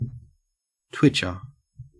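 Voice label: "twitcher", Australian English; pronunciations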